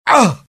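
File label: death, dialogue generic Italian; mohaa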